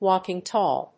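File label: "walking tall", English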